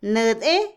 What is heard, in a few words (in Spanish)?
rehusar, Otomí, mujer; Habla; INALI